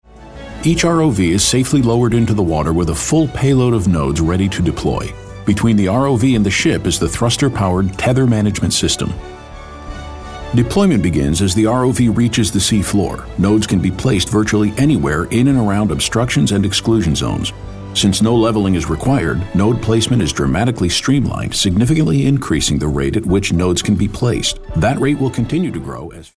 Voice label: Corporate

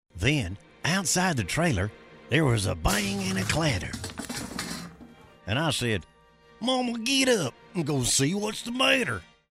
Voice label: southern

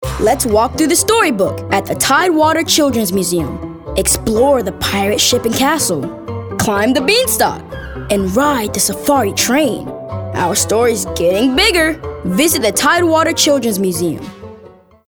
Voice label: Storybook

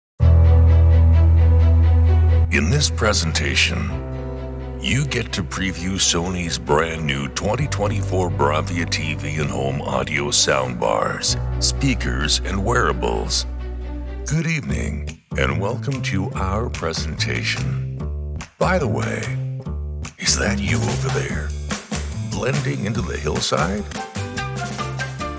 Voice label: Narration

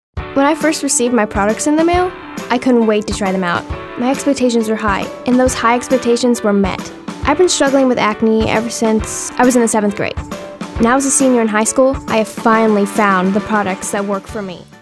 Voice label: girl next door

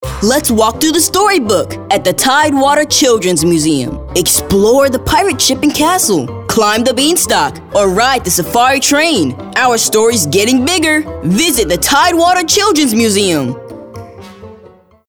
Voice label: Storybook

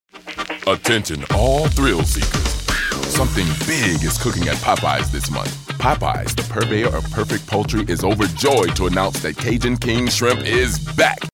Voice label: announcer

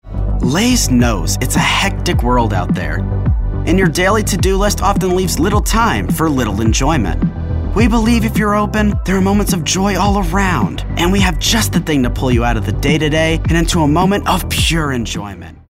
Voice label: millenial

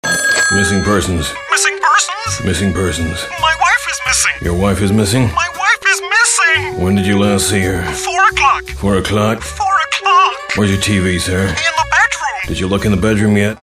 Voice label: deadpan

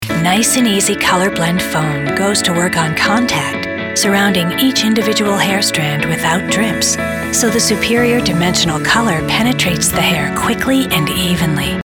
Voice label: Commercial; NiceNEasy

